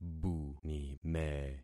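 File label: "bunime", concatenated syllables; Affixing experiment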